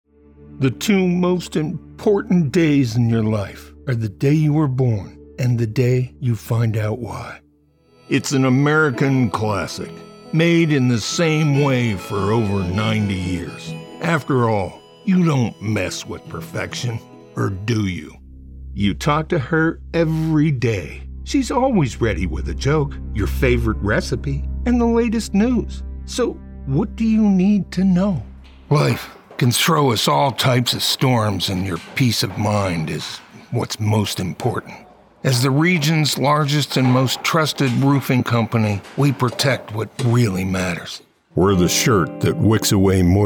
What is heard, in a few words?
Commercial